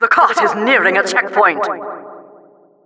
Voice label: Announcer cart defender warning; Administrator

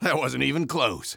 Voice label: Engineer cheers

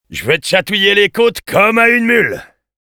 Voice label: taunts, fr; Engineer

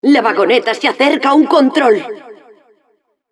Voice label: announcer cart defender warning, es; La vagoneta se acerca a un control.; Narradora

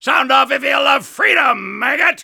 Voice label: Soldier tank war cry

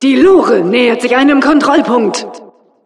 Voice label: announcer cart defender warning, de

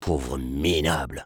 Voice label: sniper taunts, fr

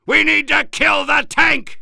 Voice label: Soldier tank shooting

